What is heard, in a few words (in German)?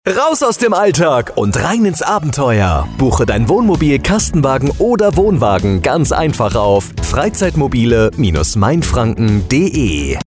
voice SFX; Funkspot; Freizeitmobile Mainfranken